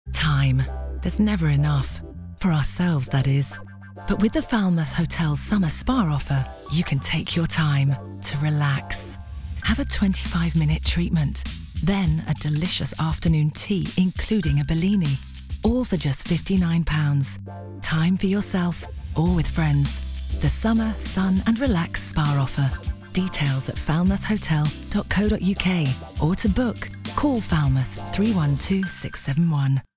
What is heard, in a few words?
Radio Ad; Falmouth Hotel